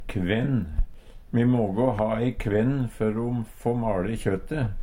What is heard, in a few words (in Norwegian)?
kvenn, Numedalsmål; Me mågå ha ei kvenn før o få male kjøte.